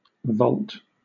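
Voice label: "volt", British English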